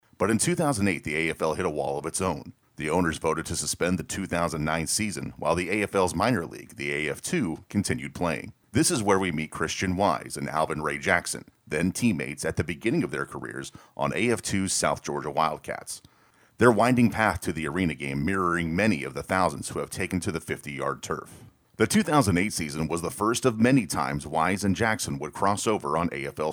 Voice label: narration